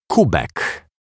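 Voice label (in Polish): "kubek", Polish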